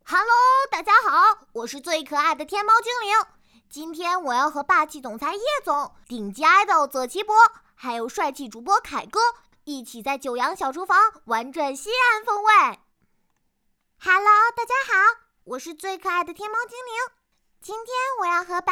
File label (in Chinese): 四个样音